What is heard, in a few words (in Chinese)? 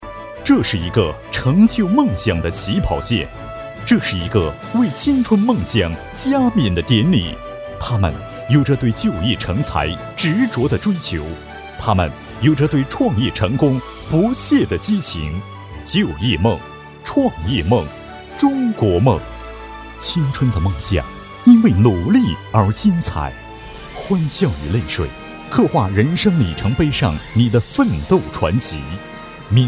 男 卫视宣传片, 浑厚磁性, 国语男声配音; 海峡创业